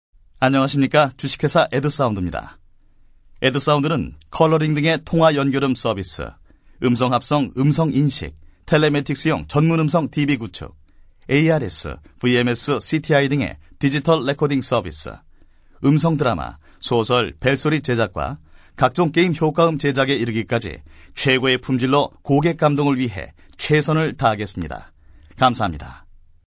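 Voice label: Korean male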